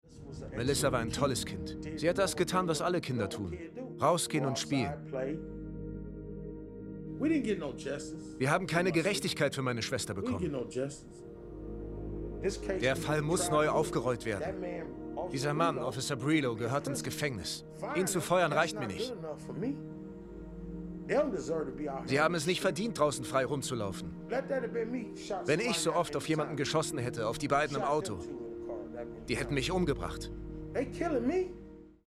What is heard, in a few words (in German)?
Dokumentation Voice Over